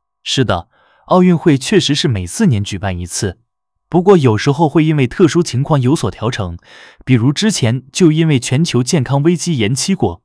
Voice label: zh male; KE-Omni